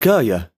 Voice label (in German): "kaya", arabische jungennamen